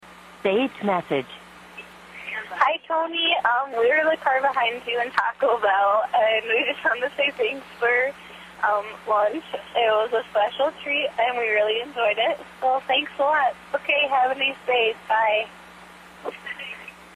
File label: phone thank you